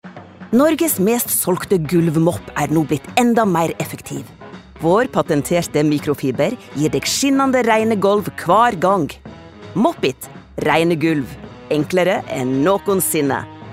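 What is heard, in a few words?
no commercial, Norwegian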